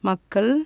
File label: people, tamil; JIPA